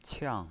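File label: "qiang" fourth tone, pinyin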